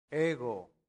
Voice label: "ego", Latin; santillana

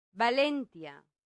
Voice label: "valentia", latin; santillana

